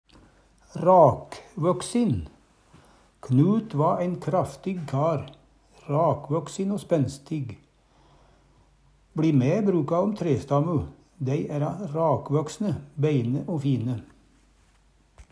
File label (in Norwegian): rakvoksin, Numedalsmål; Knut va ein kraftig kar. Rakvøksin o spenstig.